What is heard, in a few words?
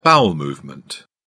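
"bowel movement", English, British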